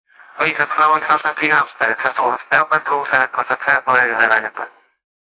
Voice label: pilot announcment welcom; announcement